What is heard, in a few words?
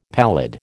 "pallid", American